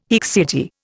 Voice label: "haecceity", Indian